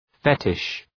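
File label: "fetish", English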